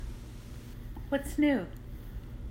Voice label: Whats new USA